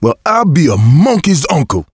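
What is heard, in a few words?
reaction negative, male; survivor; coach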